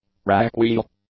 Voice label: "rack-wheel", English; nouns